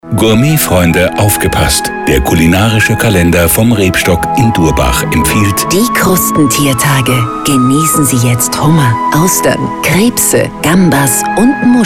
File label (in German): Radiospot Beispiele Gastronomie, Genussvoll, sinnlich, appetitanregend; Rebstock Durbach Krustentiertage